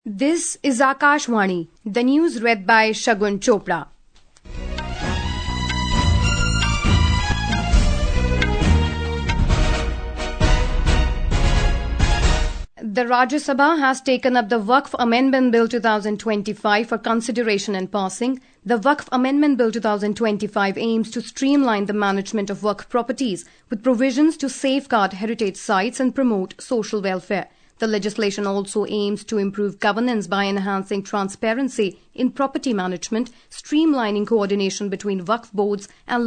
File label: English